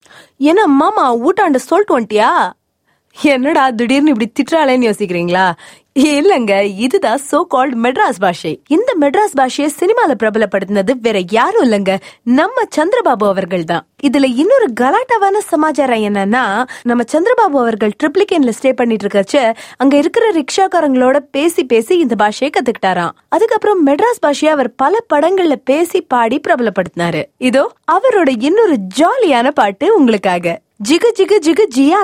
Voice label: Hindi Female On Air Personality; India